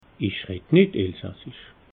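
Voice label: "reddnittelsassisch", Alsatian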